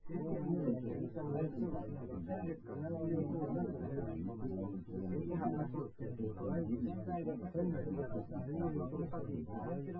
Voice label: male synthconv